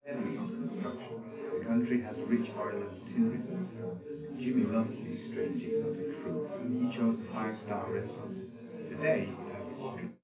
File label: male; SiSEC11; underdetermined